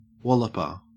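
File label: "walloper", Australian English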